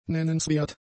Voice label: "nennenswert", German; adjectives

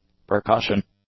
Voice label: "percussion", English; nouns

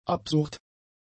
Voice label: "absurd", german; adjectives

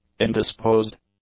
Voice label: "indisposed", English; adjectives

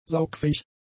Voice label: "saugfähig", german; adjectives

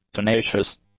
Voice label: "tenacious", English; adjectives

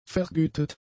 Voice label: "vergütet", German; adjectives

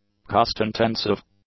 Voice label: "cost-intensive", English; adjectives

